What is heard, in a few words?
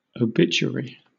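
"obituary", British English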